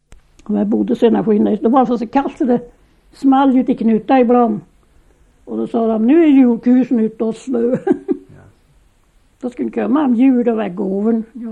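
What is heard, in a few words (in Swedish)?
Anundsjö Ångermanland, dialekter; Folkminnen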